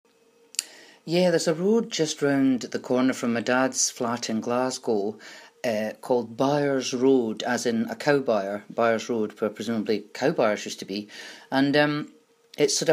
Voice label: Natural Read

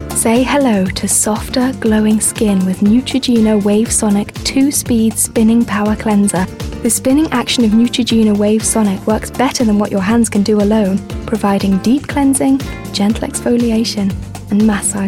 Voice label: Commercial

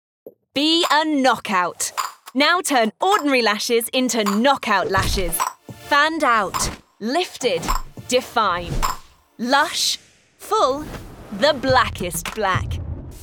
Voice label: Commercial; Estée Lauder

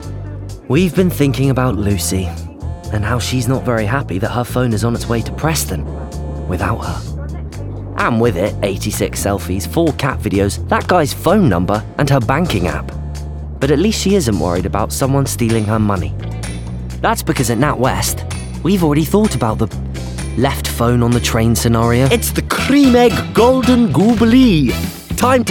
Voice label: Commercial

